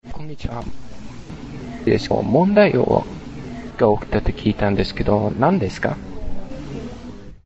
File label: Japanese Return Telephone Call